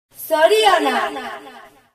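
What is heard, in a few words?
congratulation, Scottish Gaelic; gcompris-data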